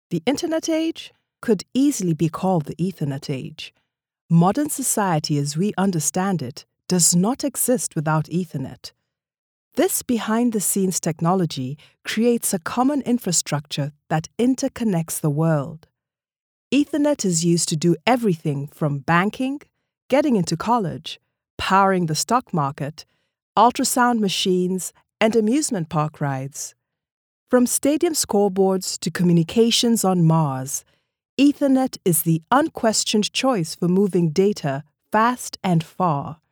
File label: english african tv shows